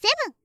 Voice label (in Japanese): "セブン", カウント